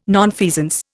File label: "nonfeasance", Indian